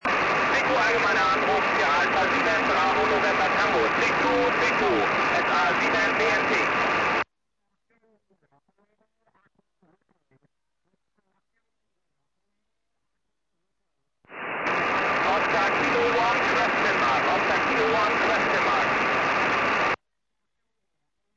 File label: 70cm, tropo